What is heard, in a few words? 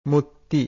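"mutti", Pali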